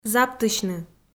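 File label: "заптысьны", Komi, female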